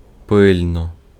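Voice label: Ukrainian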